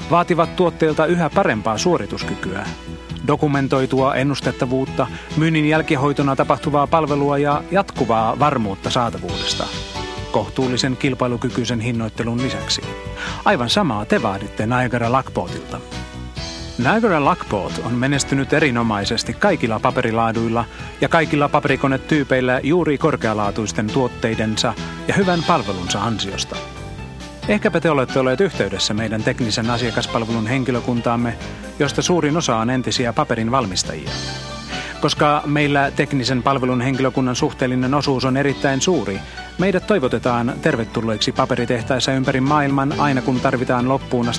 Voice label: Finnish

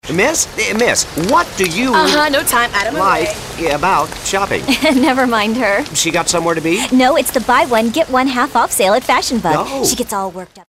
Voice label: newscasters

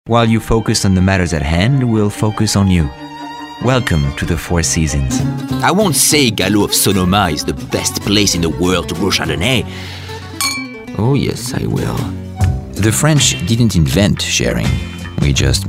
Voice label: English Commercials

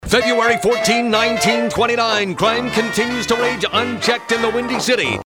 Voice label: Retro Announcer

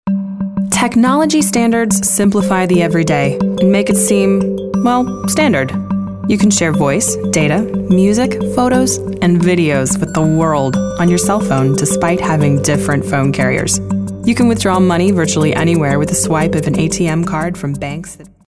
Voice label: Informative

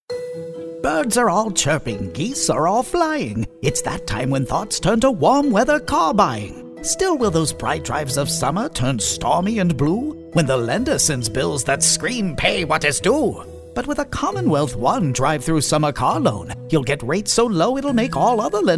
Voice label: storyteller

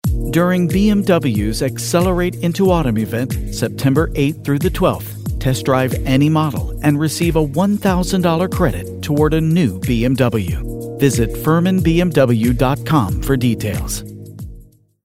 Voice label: sophisticated

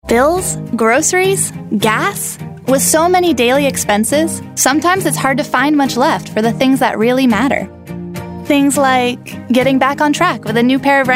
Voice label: conversational

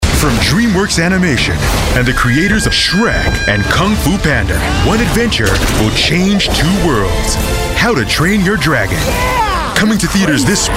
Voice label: movie trailers

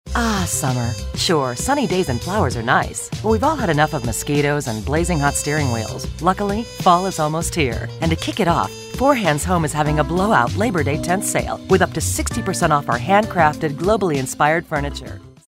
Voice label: real people midlife

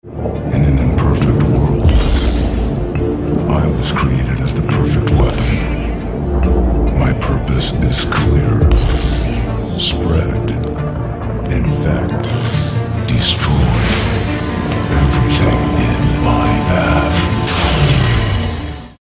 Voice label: ominous

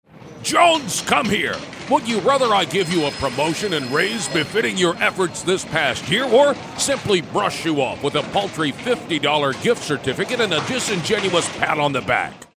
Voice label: tough and gritty

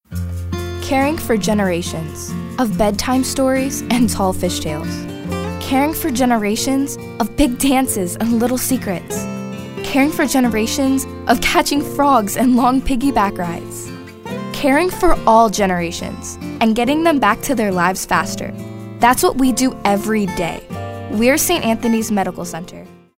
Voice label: storyteller

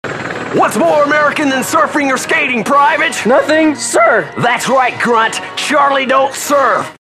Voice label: drill sarg

